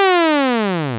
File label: glot gliss